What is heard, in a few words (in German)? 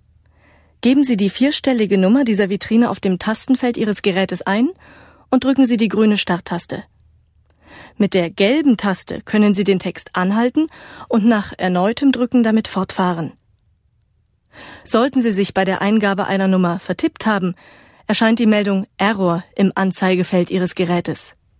audioguide, deutsch frauen; sprecher